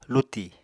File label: "luti", Apatani